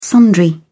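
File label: "sundry", English, uk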